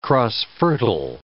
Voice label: "cross-fertile", english

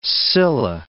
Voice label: "scilla", English

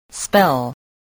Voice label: "spell", English voice

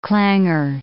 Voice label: "clangour", English

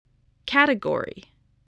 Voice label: "category", spelling

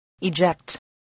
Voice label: "eject", spelling

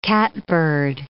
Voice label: "catbird", English

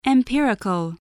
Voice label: "empirical", spelling

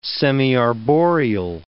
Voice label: "semiarboreal", English

